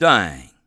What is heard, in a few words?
curse, English Voice